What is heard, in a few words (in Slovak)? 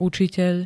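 "učiteľ", Slovak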